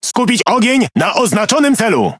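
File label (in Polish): Speech Quick Commands Enemy Ship, Polish; World Of Warships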